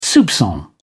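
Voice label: "soupcon", British English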